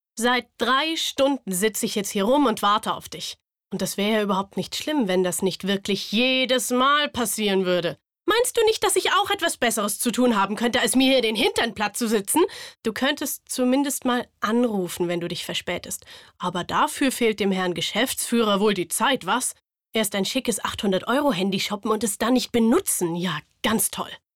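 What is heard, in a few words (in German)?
szene, sprecher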